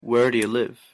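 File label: "where do you live" English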